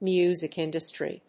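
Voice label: "Music industry", English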